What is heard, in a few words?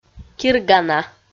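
"киргана", Komi, female; kpv; audio dict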